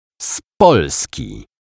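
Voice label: "z Polski", Polish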